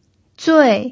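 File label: "zui4", Mandarin Chinese pinyin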